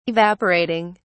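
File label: "evaporating", British English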